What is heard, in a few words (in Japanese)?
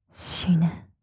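"死ね" Japanese, 強く, 極近, 右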